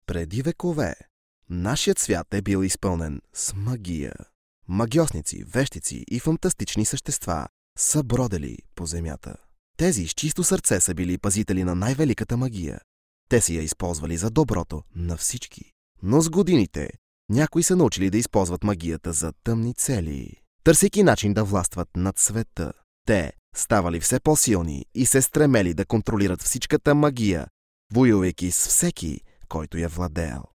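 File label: bulgarian male narration, voice demos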